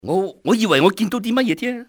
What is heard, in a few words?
thought i saw, cantonese male; XBOX; enemy